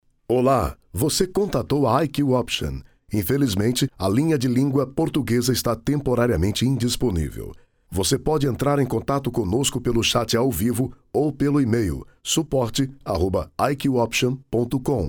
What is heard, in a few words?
portuguese brazilian ivr